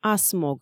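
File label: "асмог" Komi, female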